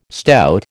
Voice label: "stout", American